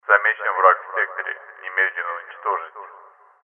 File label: announce; Dystopia; exodus